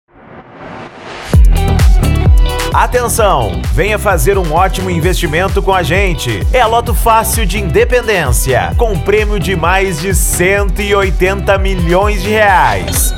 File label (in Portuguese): Locutor; Lotofacil Independencia Lotericas Gravatai RS